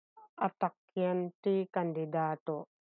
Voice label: Ilocano